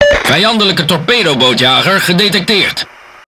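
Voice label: NL Speech Detection Enemy Destroyer, Dutch; World Of Warships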